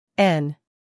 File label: "n", US; sounds-of-american-english; everyone-can-use-english